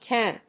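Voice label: "tamp", English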